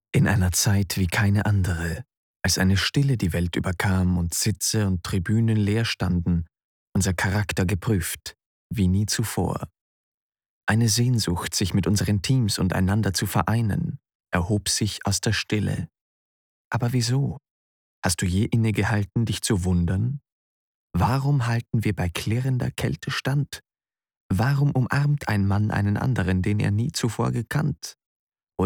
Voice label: Imagefilm; Fandom

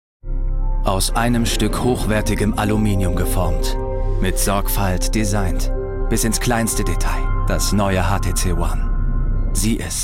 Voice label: tv spot; das neue htc one m8

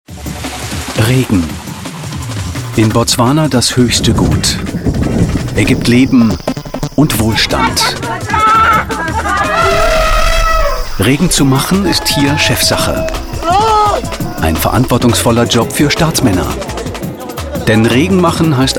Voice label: off trailer; ARD "1plus"; berlin; LOFT VOICES

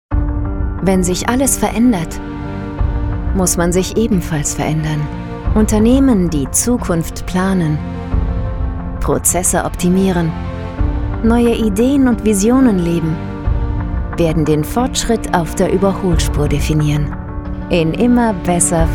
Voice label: Imagefilm Evolution; LOFT VOICES

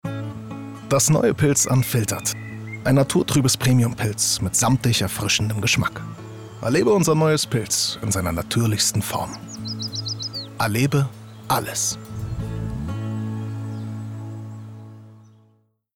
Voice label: Werbung Bier, Commercial, German

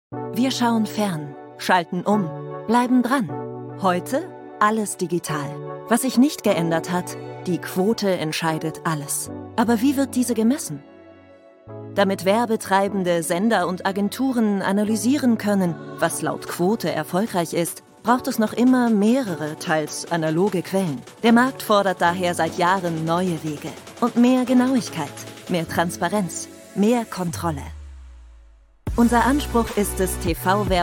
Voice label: Unternehmensfilm; AdScanner

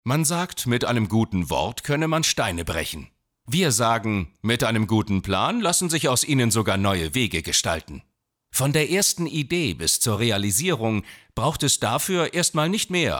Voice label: Imageclip Ingenieurbüro markant dynamisch

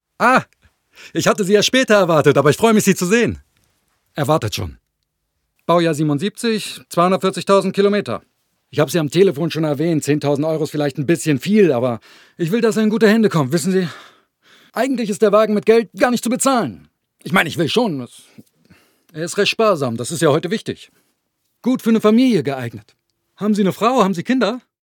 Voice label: Synchron Franzoesisch

